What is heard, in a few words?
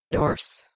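"dhors", Proto-Indo-European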